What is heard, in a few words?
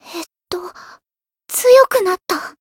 growth, jp